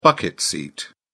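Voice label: "bucket seat", English, British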